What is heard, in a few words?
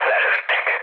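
Hungarian aircraft destroyed mood low, speech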